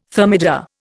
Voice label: "thermidor", Indian